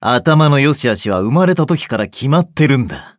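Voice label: victory, Japanese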